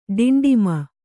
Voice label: "ಡಿಂಡಿಮ", Kannada